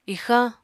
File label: "ixañ", Apatani